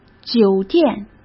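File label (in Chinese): "酒店", Mandarin Chinese; chinese flashcards; hsk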